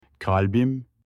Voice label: my heart in turkish